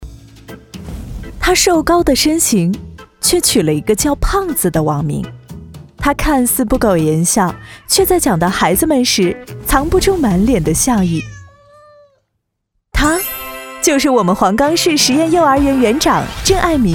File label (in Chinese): 广告专题有趣活泼自然; 俏皮